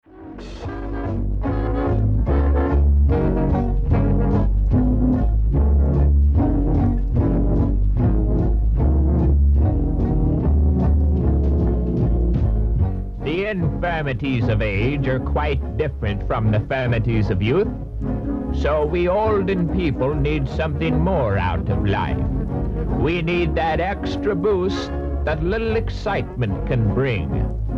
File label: Commercial PSA